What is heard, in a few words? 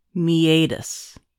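"meatus", American English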